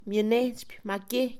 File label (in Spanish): llevar en el hombro, Mixe, Mujer; Movimiento; INALI